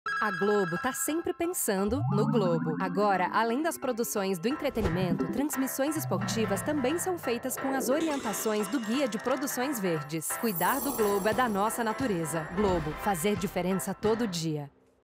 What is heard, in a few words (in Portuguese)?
Locutora; ESG Globo Transmissoes Sustentaveis